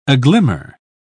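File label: "aglimmer", British English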